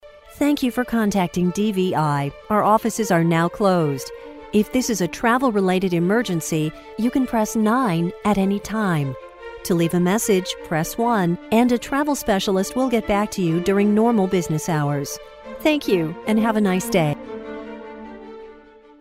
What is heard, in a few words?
Business Closed Message